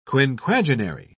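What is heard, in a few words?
"quinquagenary", English, uk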